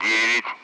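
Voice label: Speech Russian; Allied Flight; Digits; DCS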